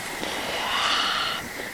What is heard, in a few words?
disembodied voice